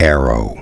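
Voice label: "arrow", spoken word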